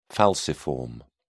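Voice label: "falciform", British English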